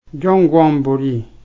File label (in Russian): "напоминать", nanai